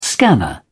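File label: "scanner", British English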